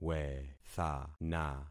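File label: "wethana", concatenated syllables; Affixing experiment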